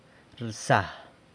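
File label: "rsa", San Lucas Quiaviní Zapotec; drives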